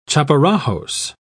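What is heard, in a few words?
"chaparajos", British English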